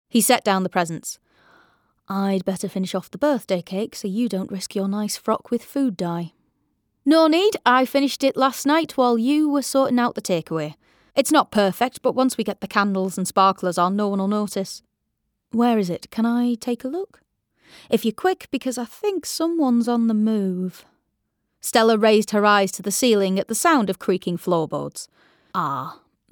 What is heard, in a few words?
Audiobook